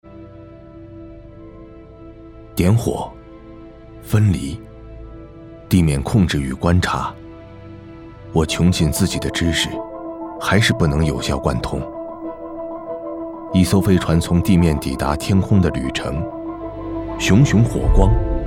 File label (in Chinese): 男 专题; 星星诗刊; 航天重器